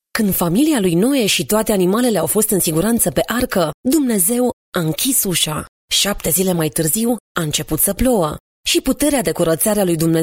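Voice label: Romanian female Narration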